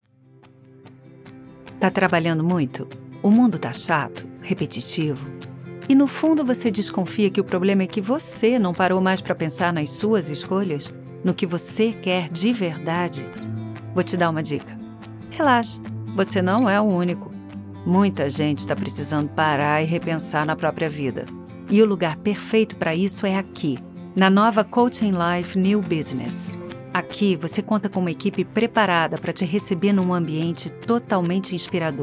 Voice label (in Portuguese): Coloquial